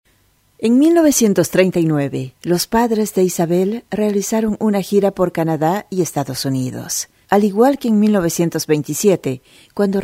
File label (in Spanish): locutora boliviana bolivian voiceover, Bolivian female voice over